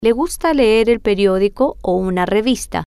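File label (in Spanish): Le Gusta Leer Periodico Revista, spanish, female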